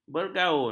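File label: "bergaun", Malay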